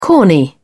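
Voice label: "corny", British English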